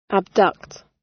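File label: "abduct", British; Crime and punishment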